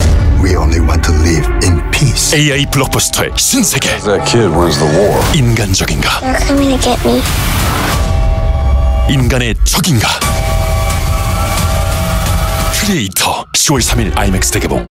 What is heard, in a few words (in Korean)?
CF 강력한 힘있는; 크리에이터